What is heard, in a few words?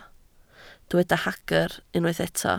"hagr", Welsh